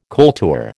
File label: "kultur", American